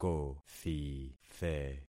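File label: "kothithe", concatenated syllables; Affixing experiment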